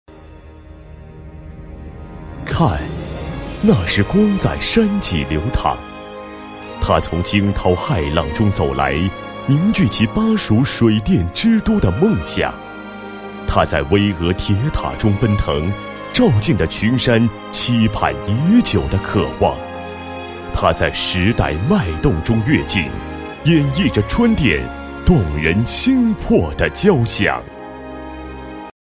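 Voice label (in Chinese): 男厚重大气-专题-大气专题, 国语男声配音; 巴蜀水电的梦想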